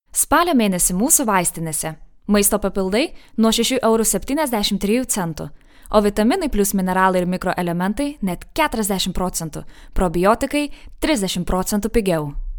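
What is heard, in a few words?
Voice over Lithuanian Commercial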